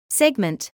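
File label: "segment", British English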